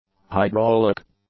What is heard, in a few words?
"hydraulic", English; adjectives